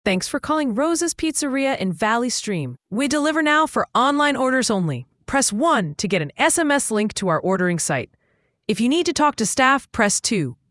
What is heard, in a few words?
prompt, ivr; rosas pizzeria